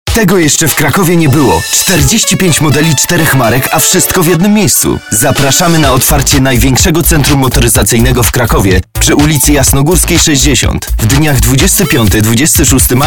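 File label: Polish, male, commercial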